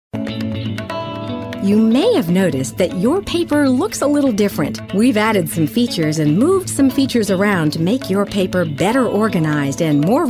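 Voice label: narration, female voice talent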